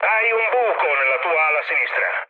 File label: left wing mood med, speech it; War Thunder